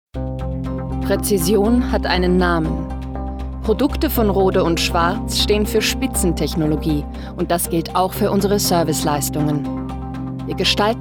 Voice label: Corporate German; LOFT VOICES